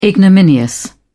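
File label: "ignominious", English, British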